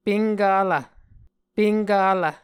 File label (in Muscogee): "penkalv", Muscogee